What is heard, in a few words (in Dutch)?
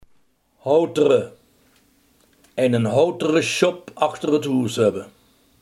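houten, Limburgse gesproken woordenboeken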